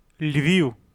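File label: "Львів", Ukrainian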